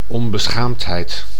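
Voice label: "onbeschaamdheid", Dutch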